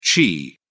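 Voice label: "chi", British English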